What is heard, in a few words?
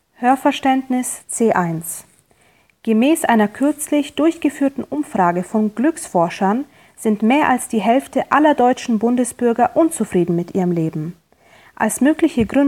German listening level C1